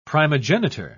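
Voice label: "primogenitor", British English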